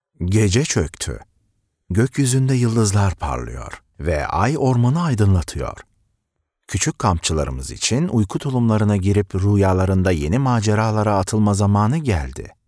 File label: Story Telling